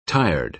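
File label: "tired", American English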